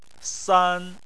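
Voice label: "san" tone 1, Chinese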